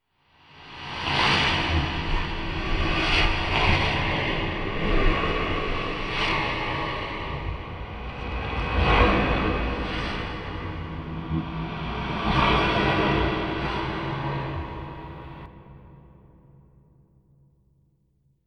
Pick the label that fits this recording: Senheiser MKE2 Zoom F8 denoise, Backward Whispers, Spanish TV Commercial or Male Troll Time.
Backward Whispers